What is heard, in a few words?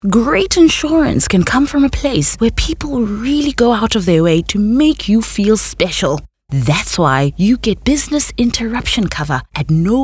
English Corporate; OSM Talent